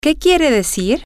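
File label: Spanish, what does X mean, female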